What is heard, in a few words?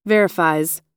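"verifies", American English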